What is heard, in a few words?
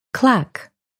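"clock", English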